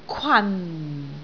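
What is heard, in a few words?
"kwan4", Cantonese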